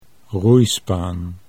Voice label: "roeispaan", Dutch